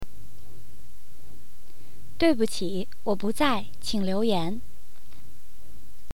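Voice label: Mandarin/Putonghua, leave him a message; 对不起，我不在，请留言。; Mandarin Prompt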